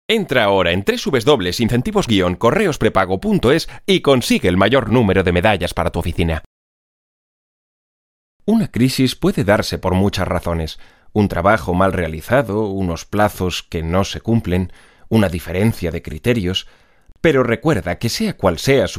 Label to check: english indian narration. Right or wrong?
Wrong